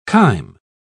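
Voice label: "chyme", British English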